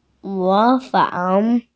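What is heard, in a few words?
"imitate", Mandarin Chinese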